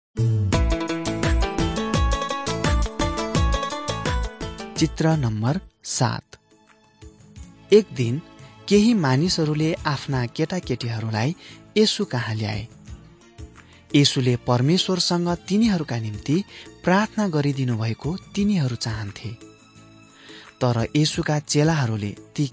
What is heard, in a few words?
jesus teacher and healer nepali